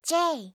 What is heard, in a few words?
"J", alphabet